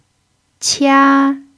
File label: "qia1", pinyin